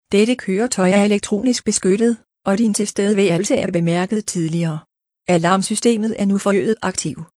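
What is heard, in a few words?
Danish, camper alarm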